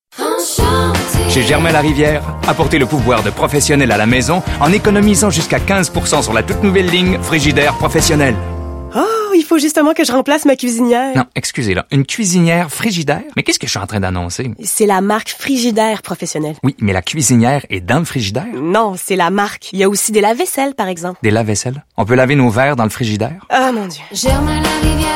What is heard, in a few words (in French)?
voix complet